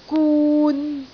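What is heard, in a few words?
"gun3", Cantonese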